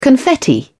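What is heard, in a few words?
"confetti", British English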